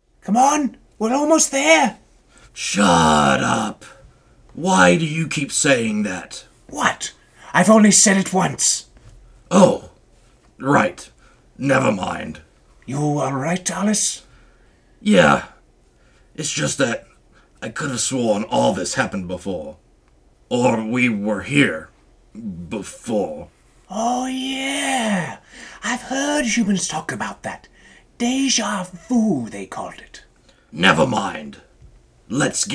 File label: Voices Convo; Gribble